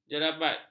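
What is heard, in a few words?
"jerebat", Malay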